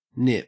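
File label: "nip", Australian English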